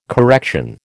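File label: "correction", American English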